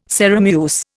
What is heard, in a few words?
"Sarum use", Indian